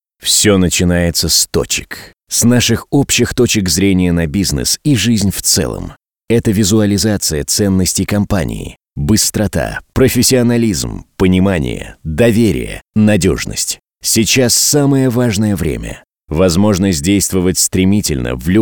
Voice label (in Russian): dushevno